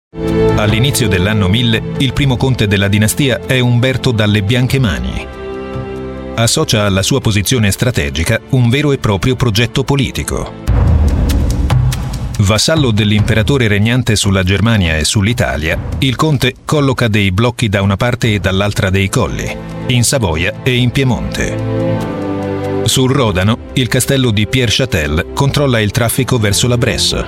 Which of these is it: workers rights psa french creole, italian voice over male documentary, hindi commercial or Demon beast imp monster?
italian voice over male documentary